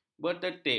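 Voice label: "bertertib", Malay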